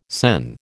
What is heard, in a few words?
"SEN", American